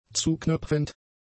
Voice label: "zuknöpfend", German; adjectives